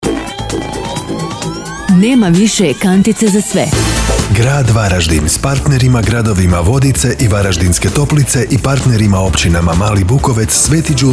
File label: radio spot; vodice